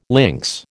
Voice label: "links", American

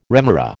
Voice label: "remora", American

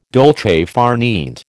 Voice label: "dolce far niente", American

